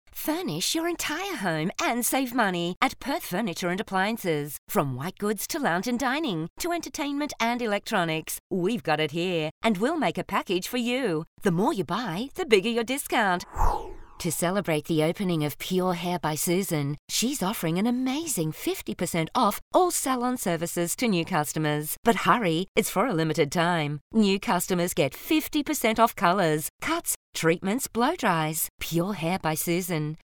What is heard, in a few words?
Retail Friendly